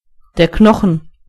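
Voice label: bone, German voices words; gcompris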